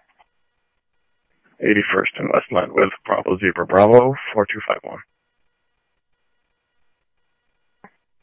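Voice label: police radio dispatch, ScottsdalePolice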